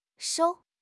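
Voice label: "shou1", Mandarin Chinese; HSK4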